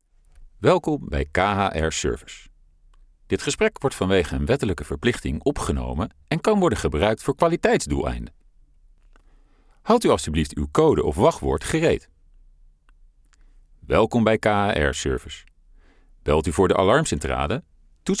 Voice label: Voice Response

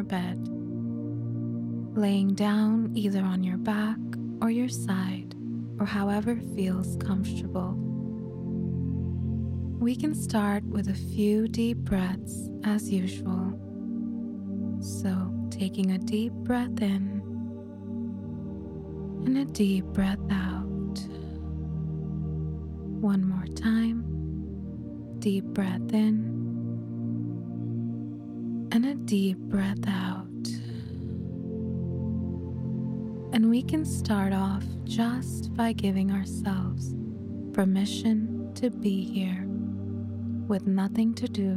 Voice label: meditation

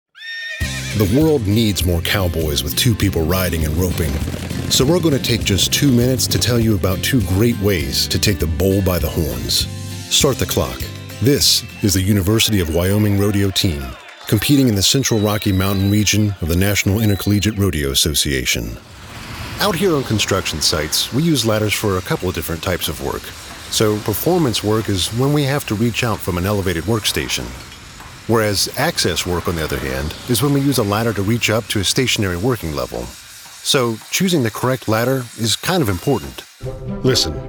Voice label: Narration

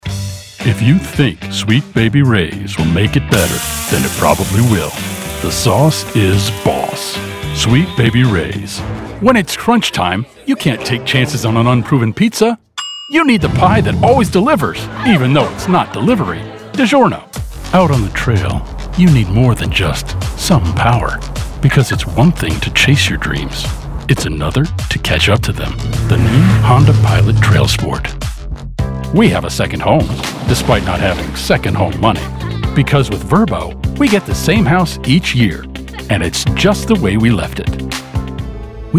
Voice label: Commercial

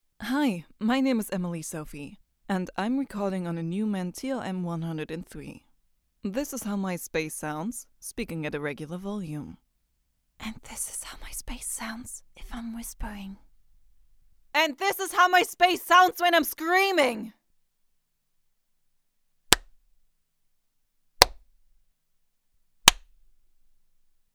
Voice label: Raw English; Raw demo

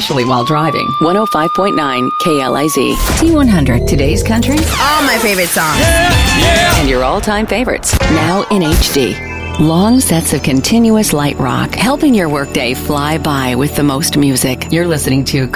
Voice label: radio imaging

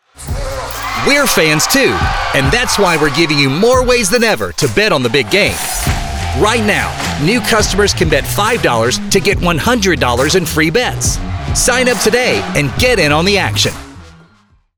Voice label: Commercial SPORTS

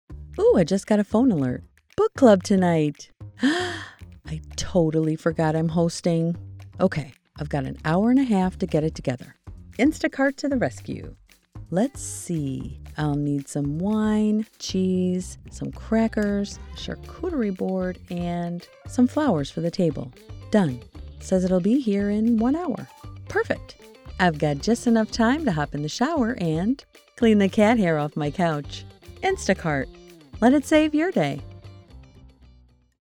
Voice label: ONLINE AD; Instacart

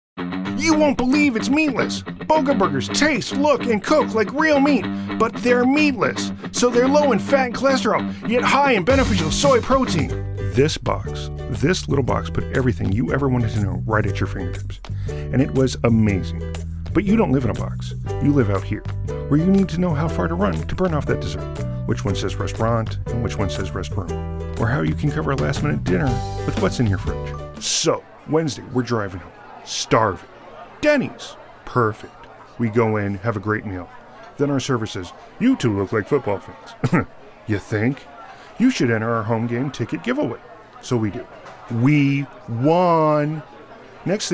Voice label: commercial

